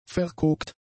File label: "verkokt", German; adjectives